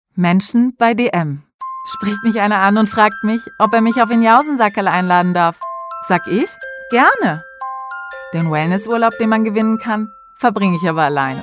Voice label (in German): Werbung; dm